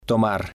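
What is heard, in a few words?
"tomar", Spanish, male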